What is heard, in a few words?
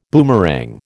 "boomerang", American